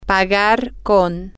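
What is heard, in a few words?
to pay with, Spanish, female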